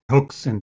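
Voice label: "Huksen", Proto-Indo-European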